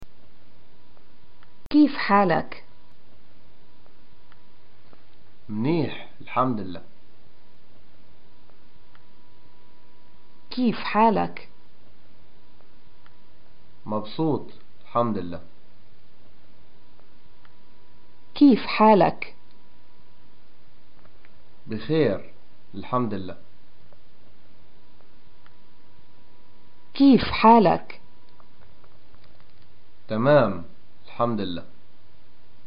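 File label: "getacqua how are you response", Palestinian Arabic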